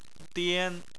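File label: "dian" first tone, Chinese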